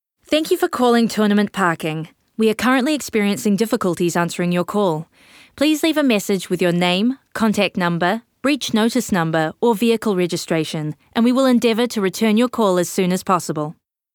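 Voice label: PARKING interactive voice response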